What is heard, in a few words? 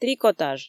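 "трикотаж", Komi, female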